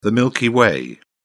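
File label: "milky way", British English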